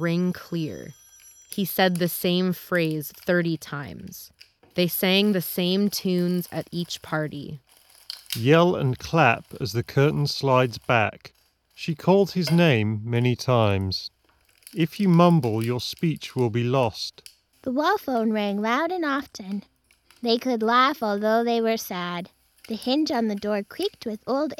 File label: Audio Technica ATH GL3 Office microphone sample